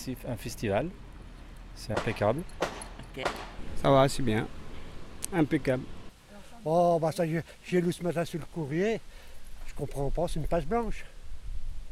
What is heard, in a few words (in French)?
Micro trottoir L affiche de Chalon dans la rue